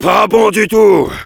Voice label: Heavy negative vocalization, fr